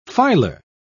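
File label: "filar", English, British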